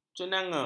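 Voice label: "cenangga", Malay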